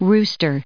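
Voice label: "rooster", American English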